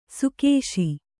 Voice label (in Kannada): "ಸುಕೇಶಿ", Kannada